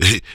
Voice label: VOX FX; E-Lab; X Static Goldmine 2; akai

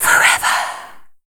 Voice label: WHISPER; WHISPERS

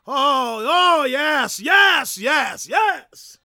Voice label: PREACHIN, Gospel, PREACHER; Spectrasonics; Vocal Planet Vol.1 Gospel

